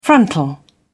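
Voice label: "frontal", British English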